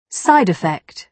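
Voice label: "side effect", British English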